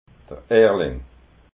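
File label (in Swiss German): "erling", Alsatian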